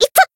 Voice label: Voice damaged, jp; ashuthia